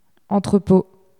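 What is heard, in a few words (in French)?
"entrepôt", French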